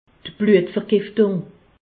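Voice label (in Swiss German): "bluetvergiftung", Alsatian; medecine; septicémie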